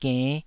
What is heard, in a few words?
"genn5", Taiwanese